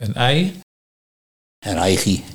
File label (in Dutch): Eigi, Nieuw lekkerlands dialect